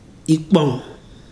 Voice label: "cocoyam", Ibibio